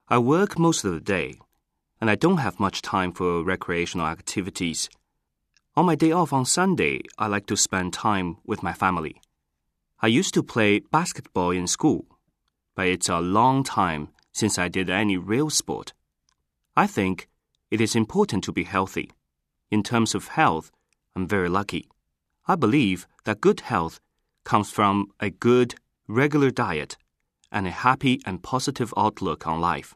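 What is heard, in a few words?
Mandarin, English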